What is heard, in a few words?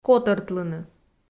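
"котӧртлыны", Komi, female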